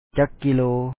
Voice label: "ca2k kiilo2o", Lao; how many kilo